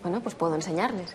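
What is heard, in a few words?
Voice Acting es Female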